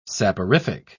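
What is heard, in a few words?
"saporific", British English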